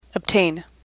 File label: "obtain", English; AWL